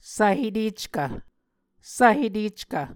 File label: "svheteckv", Muscogee